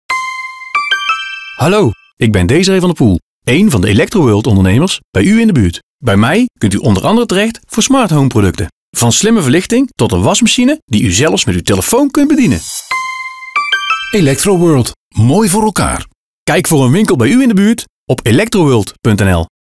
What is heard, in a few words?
RADIO COMMERCIAL; ELECTRO WORLD; MOOI VOOR ELKAAR; ELECTRO WORLD VAN DER POEL